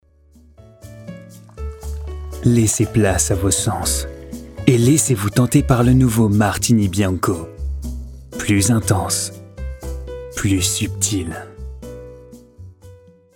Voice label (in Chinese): 轻松温情广告